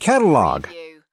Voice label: US male "catalog"; lynne publishing

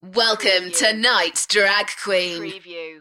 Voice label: UK female, Welcome tonights drag queen; beanstalk audio

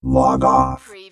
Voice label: Robot voice "log-off"; lynne publishing

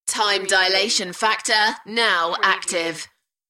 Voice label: UK female; beanstalk-audio

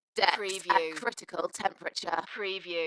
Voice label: UK female, Dalekness; Decks at critical temp

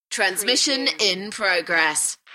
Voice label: UK female, Transmission in progress; beanstalk audio

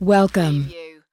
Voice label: "welcome", US female; lynne publishing

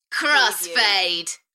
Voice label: UK female "Crossfade" Enthusiastic; beanstalk audio

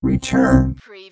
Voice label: Robot voice, "return"; lynne publishing

